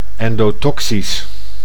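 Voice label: "endotoxisch", Dutch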